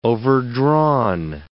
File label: "overdrawn", English